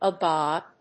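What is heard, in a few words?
"agog", English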